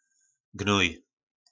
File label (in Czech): "gnoj", nářečí Po našimu; Hnůj